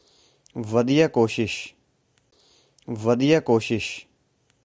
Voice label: Good try punjabi

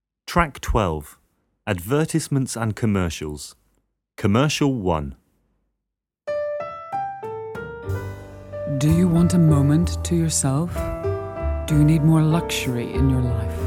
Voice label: radio ad

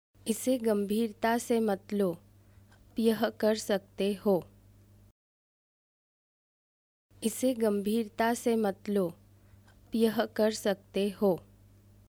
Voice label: Take it easy! can do it, Hindi, male; Take it easy! (Name) can do it; kindergarten k1 admission

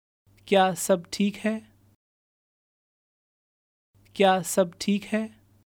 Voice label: Is everything fine Urdu

